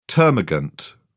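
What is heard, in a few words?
"termagant", British English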